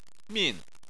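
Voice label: "min", Chinese, fourth tone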